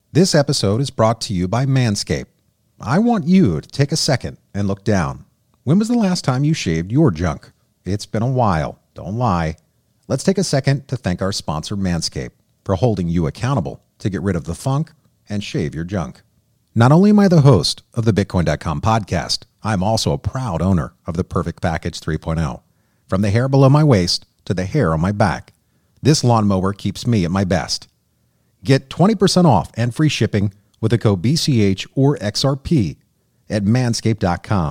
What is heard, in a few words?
narrator; Manscaped